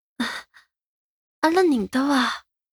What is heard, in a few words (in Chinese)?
吕萍 男孩 对话